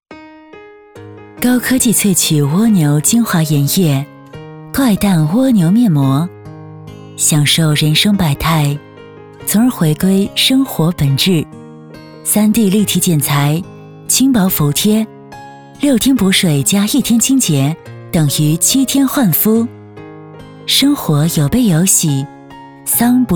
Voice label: 广告 面膜护肤品 成熟亲切

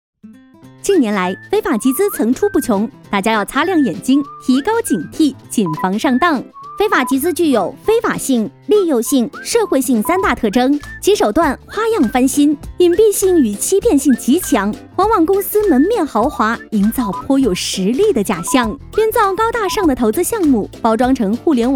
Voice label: 女,MG; 飞碟说; 警惕非法集资

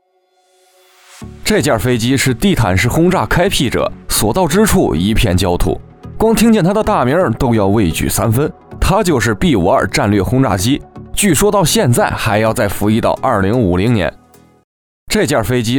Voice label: 男 素人解说 抖音短视频

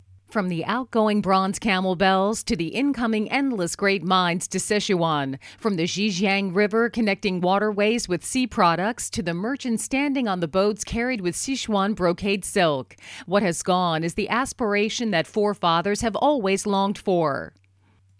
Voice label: 外籍英女 企业产品宣传介绍英文配音

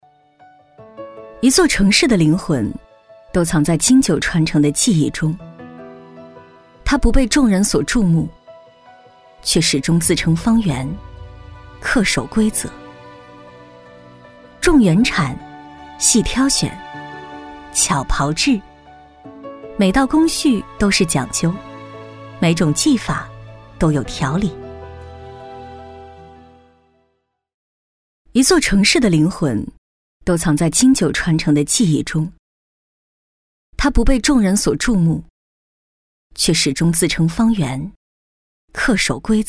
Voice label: 女 专题 中药炮制

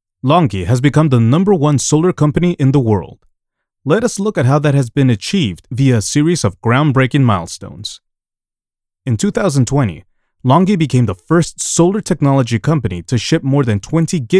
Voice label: 中英对照; 数字看隆基中英对照; 红字两句中英对照

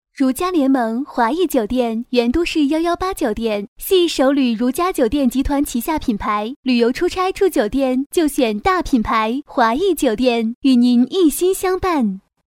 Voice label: 女 彩铃; 如家联盟·华驿酒店

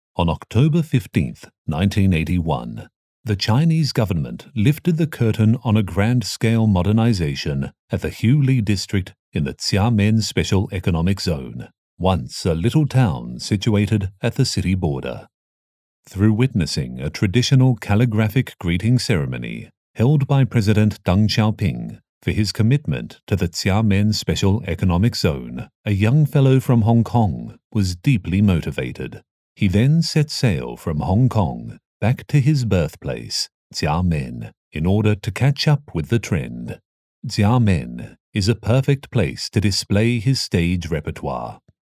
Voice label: 外籍英男, 企业历程宣传片

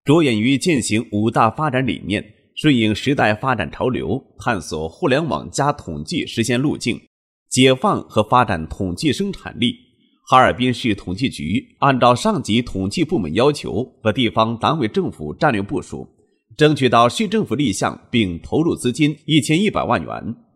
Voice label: 男, 解说专题; 某市 统计大数据平台 情况介绍

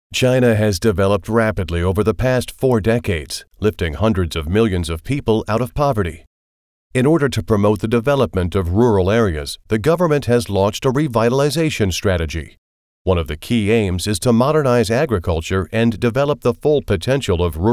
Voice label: 企业介绍-激昂

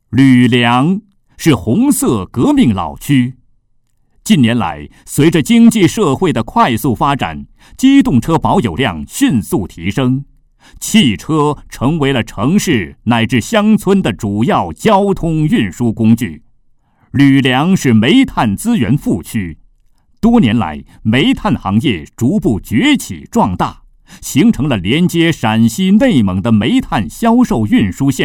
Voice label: 男 汽车; 吕梁; 国语男声配音

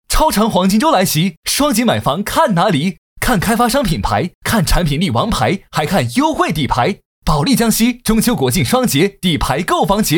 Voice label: 男 促销 活力激情; 保利看房节

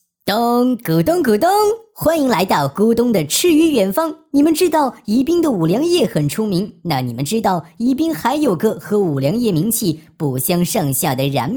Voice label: 男,MG; 咚咕

